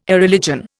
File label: "Irreligion", Indian